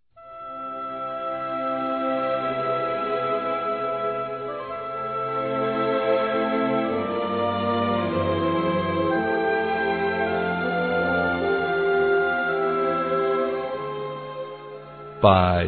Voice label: Natal Para Pai Masculino; Telemensagens; Natal e Ano novo

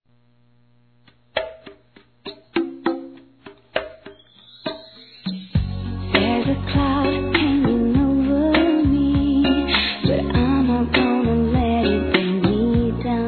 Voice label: Formatura 2º Grau Masculino, Telemensagens; Telemensagens 2017; Formaturas

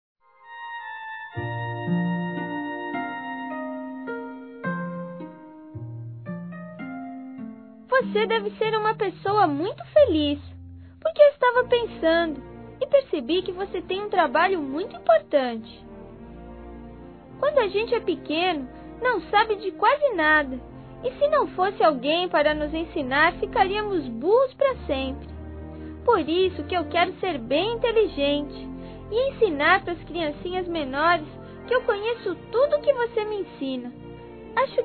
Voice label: DIA DOS PROFESSORES, Infantil masc; Telemensagens 2017

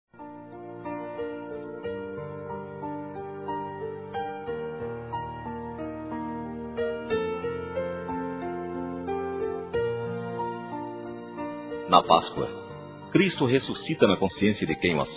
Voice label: FELIZ PASCOA (NEUTRO), Telemensagens

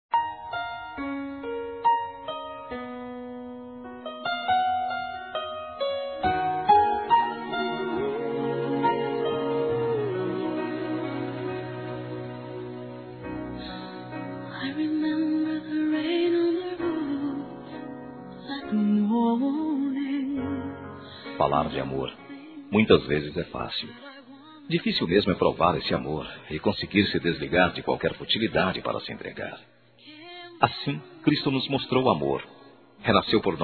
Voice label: FELIZ PASCOA ROMANTICO, Telemensagens

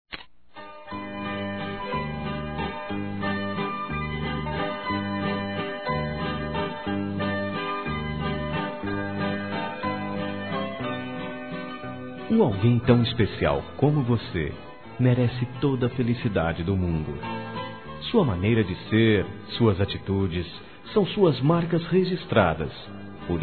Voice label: NEUTRO M, Ano novo; Telemensagens; Natal e Ano novo; masc

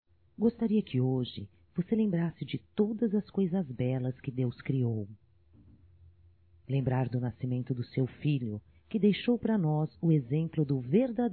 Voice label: NATAL ANO NOVO NEUTRO (F); Telemensagens 2017

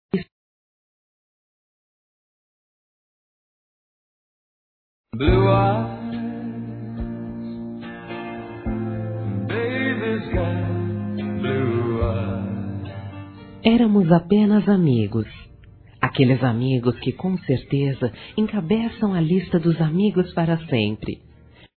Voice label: amizade colorida, Telemensagens, Fem

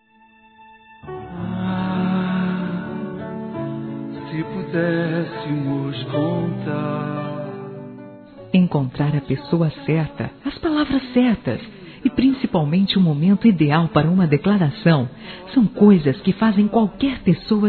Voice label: Casamento Feminino; Telemensagens; Parabenização

